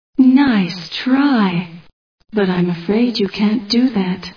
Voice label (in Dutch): nice try, dutch